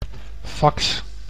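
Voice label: "fax", Dutch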